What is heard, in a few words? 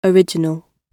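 "original", British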